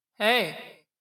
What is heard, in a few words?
spooky voice